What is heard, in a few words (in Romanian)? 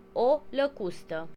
grasshopper desc, ro; insects; tuxpaint stamps; animals